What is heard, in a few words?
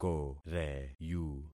"koreyu", concat syllables; Affixing experiment